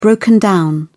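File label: "broken down", British English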